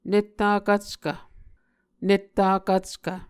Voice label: "netta kvckv", Muscogee

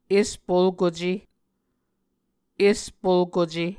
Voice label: "espolkuce", Muscogee

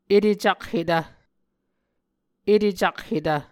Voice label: "etecakhetv", Muscogee

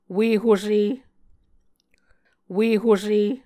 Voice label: "ue hose", Muscogee

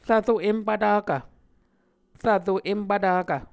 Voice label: "rvro em pvtakv", Muscogee

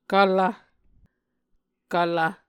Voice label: "kvlv", Muscogee

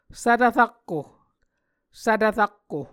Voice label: "svtv rakko", Muscogee; A Dictionary of Creek/Muskogee; SIL International

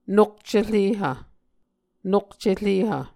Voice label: "nokcerehv", Muscogee

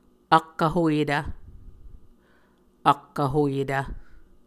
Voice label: "ak vhoyetv", Muscogee

